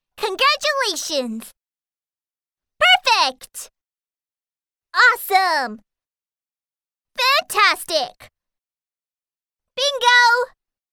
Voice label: 外籍女英 外语 美式英语 儿童游戏机

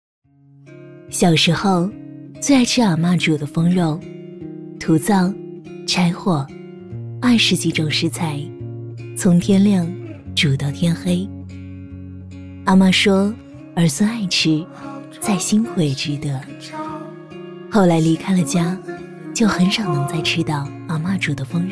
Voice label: 女 广告 食品饮料 肉汁 自然; 封肉汁

